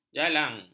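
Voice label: "jalang", Malay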